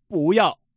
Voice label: pass, male